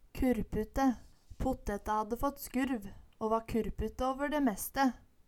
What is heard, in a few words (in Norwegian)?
kurpute, Numedalsmål